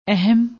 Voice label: "ahaim", Punjabi; ਅਹਿਮ

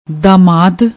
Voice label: "damaad", Punjabi; ਦਾਮਾਦ

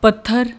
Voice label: "pathar", Punjabi

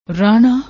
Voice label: "rahna", Punjabi

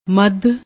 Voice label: "madh", Punjabi

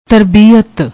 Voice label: "tarbeeat", Punjabi; ਤਰਬੀਅਤ

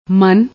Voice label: "man", Punjabi

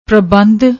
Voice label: "prabhandh", Punjabi

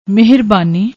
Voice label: "meharbaani", Punjabi

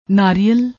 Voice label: "naariyal", Punjabi; ਨਾਰੀਅਲ

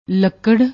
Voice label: "lakarr", Punjabi; ਲੱਕੜ

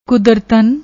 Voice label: "kudertan", Punjabi

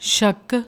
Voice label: "shak", Punjabi; ਸ਼ੱਕ; شکّ; noun, masculine

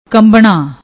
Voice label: "kanbnna", Punjabi; ਕੰਬਣਾ

